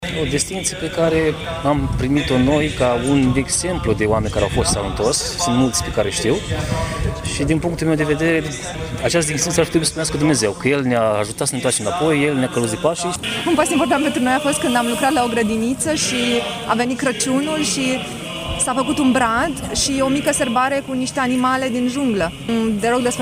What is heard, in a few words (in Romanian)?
Vox pop; Crucea Moldava